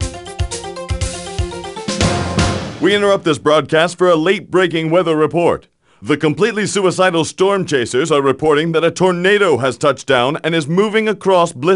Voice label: News Tornado

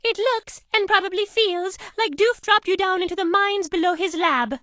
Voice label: English Voice

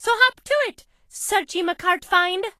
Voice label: English Voice

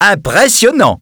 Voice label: Pinata Expressions, French

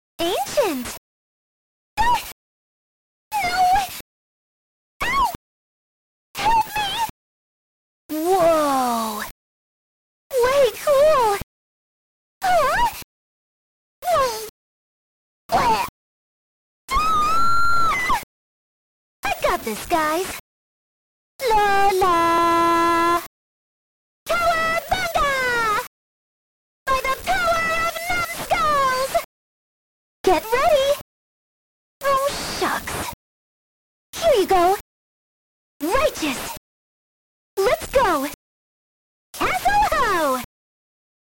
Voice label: English Voice Collection (8-bit Version)

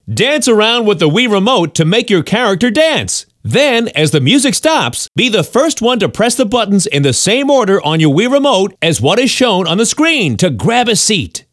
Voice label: musicalchairs vo; Birthday Party Bash; Wii

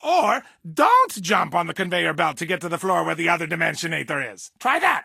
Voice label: English Voice

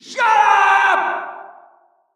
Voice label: Prisoner Exclaim

